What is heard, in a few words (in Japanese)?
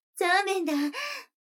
女の子台詞